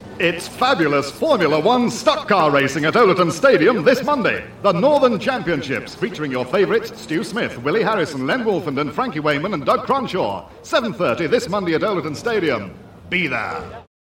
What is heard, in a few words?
Radio Advert, Stock Cars